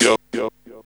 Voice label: VOX, Grunge Hip Hop; USB Soundscan vol.34; AKAI; TIMBA

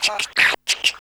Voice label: VOICE, Hip Hop; USB Soundscan vol.34; Burning Grunge; AKAI; TIMBA

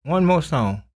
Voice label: SPOKEN, Blues Soul, TALK; Spectrasonics; Vocal Planet; EL SPOKEN

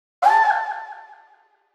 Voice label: 144 BPM Vox; Flight Kit Root Amin

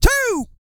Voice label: COUNT, Vocal Planet, R&B & Dance, COUNTING; ILIO

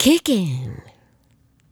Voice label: "Kickin", Swingin Chiks Spoken

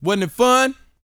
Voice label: BOAST MF; Vocal Planet; R&B Dance

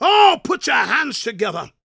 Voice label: PREACHIN, Gospel, PREACHER; Vocal Planet Vol1 Gospel

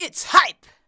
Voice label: "its hype", shouts; Best Service; Hyper Dance

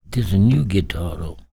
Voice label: talk, spoken, blues soul

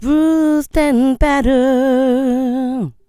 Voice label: BLUES THEN, SHOUTS, Funky Vocals; USB Soundscan vol.18; AKAI

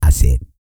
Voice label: E SPEECH, Vocal Planet R&B Dance

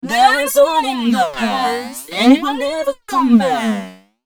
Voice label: female d120bpm, voice; FX; Mutekki Media; Dance Ultra Voice VOL-1

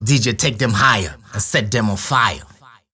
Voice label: Vocals

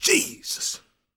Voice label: PREACHIN, Gospel PREACHER; Spectrasonics; Vocal Planet

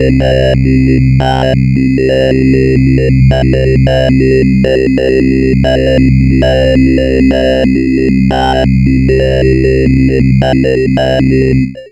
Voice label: FORMANT, COMPUTER, Funky Vocals; USB Soundscan vol.18; AKAI

